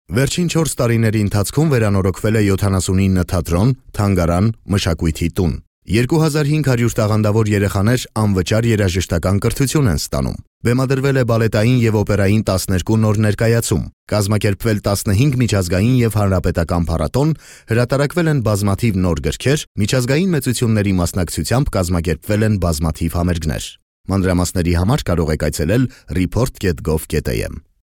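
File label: Armenian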